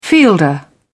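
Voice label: "fielder", English, British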